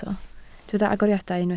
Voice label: "agoriadau", Welsh